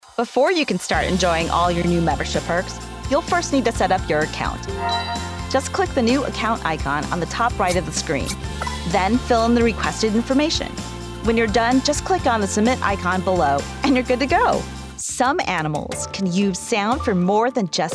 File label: ELearning